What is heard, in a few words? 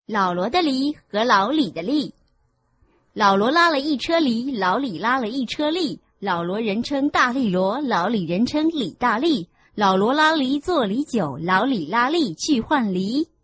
chinese tongue twister